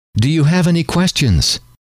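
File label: do you have any questions, male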